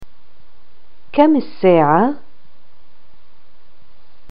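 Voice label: what time is it, Arabic, Palestine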